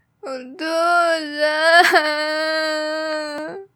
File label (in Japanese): お父さん哀